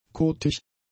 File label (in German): "kotig", German; adjectives